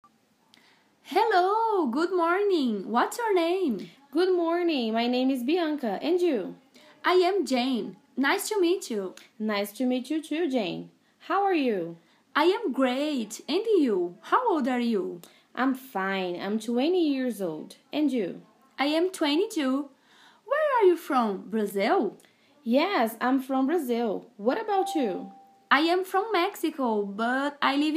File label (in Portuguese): conversa basica em ingles